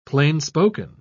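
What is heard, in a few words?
"plainspoken", British English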